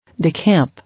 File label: "Decamp", English